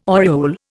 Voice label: "oriole", Indian